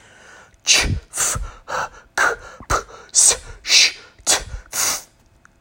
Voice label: Voiceless Consonants Chant